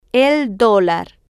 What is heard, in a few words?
"el Dolar", Spanish